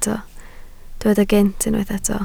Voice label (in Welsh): "gynt", Welsh